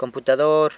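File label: "computador", San Lucas Quiaviní Zapotec; computer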